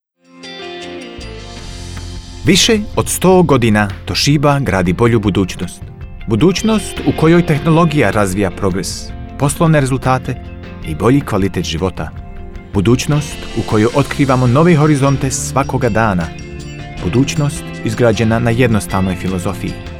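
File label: croatian narration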